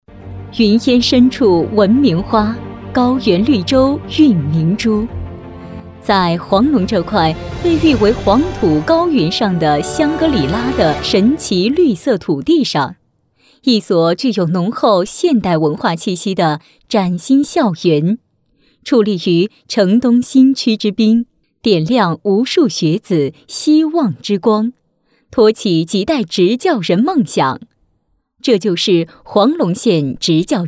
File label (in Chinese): 女-宣传片-磁性大气; 学校